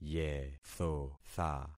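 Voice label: "yethotha", concatenated syllables; Affixing experiment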